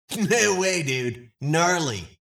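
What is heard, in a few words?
gnarly, Video Game Announcer; EL DUDE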